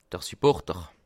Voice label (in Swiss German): "supporter", alsace